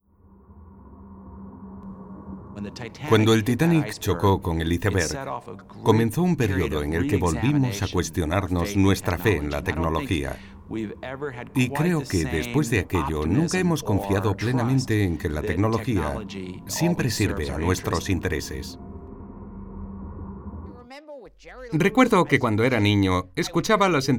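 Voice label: spanish narration, Male; professional Spanish voice actor since 1990; Dubbing actor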